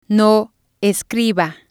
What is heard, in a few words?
"no escriba", spanish